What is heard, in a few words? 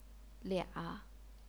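"lia", Chinese, third tone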